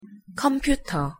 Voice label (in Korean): "컴퓨터" Korean; pronunciation